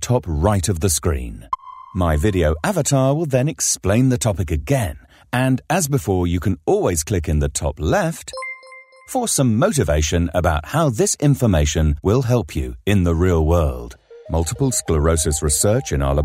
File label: e-learning